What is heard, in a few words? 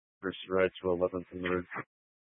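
Sheriff Dispatch, Scanner audio recording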